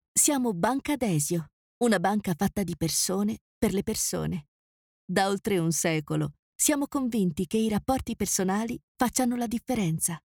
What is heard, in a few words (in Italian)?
Video Istituzionale; Banco Desio